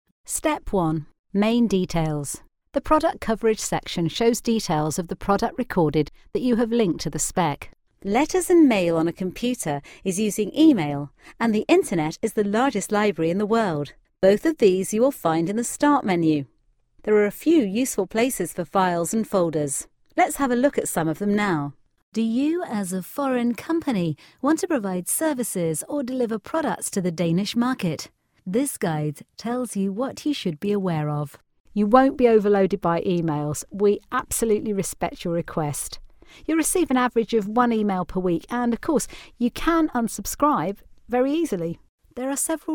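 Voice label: uk elearning, British, female; english-uk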